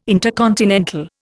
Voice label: "intercontinental", Indian

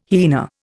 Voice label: "hyena", Indian

